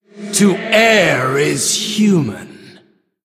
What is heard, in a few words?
Male Human Oops; Anduin Deathknight